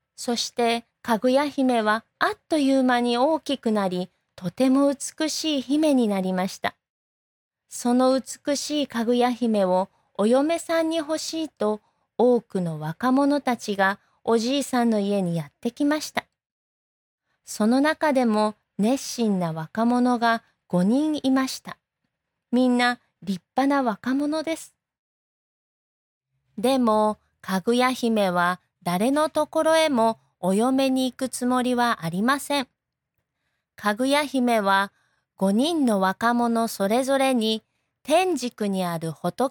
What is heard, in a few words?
Japanese